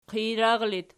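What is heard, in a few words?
"qijrar" locative, Archi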